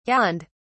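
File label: "yawned", British English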